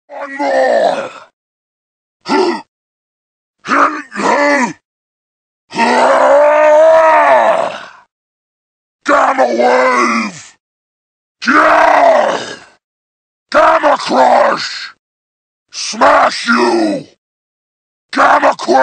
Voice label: hulk sound effect; hulk efecto de sonido